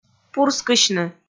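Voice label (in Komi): "пурскысьны", Komi, female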